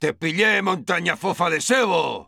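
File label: domination heavy, Spanish; Respuestas del Sniper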